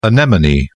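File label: "anemone", British English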